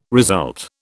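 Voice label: "result", British English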